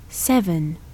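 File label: English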